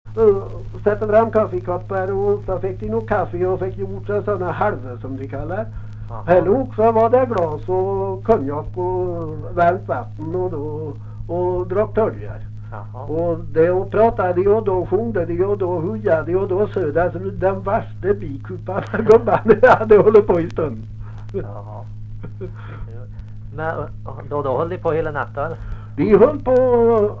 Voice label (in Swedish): Lerdal, dialekter; Folkminnen